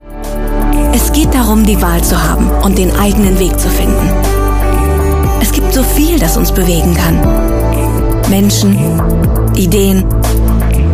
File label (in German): DE Imagefilm; BOSCH Mobility Solutions